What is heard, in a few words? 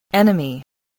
"enemy", spelling